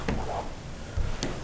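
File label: shut up, evp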